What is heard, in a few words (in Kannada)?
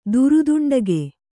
"ದುರುದುಂಡಗೆ", Kannada